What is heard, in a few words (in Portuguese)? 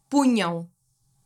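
"punham", português europeu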